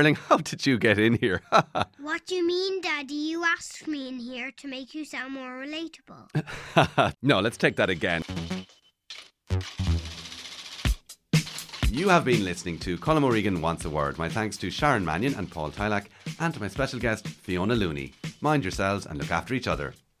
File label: Radio Showreel; RTE Radio 1